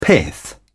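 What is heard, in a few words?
"pith", British English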